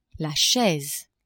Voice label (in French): "chaise", French; maison